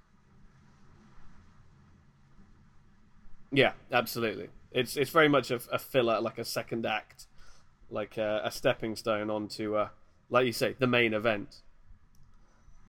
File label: Podcast Voice Sample NR